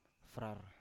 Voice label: "frar", Biak